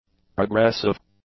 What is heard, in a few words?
"progressive", english; adjectives